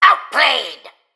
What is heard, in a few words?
Male Mechagnome Attack